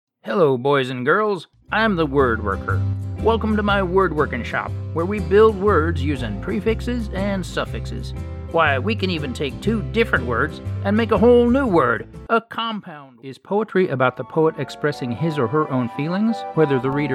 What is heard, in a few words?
eLearning K-12 VO